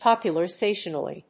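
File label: "popularisation", English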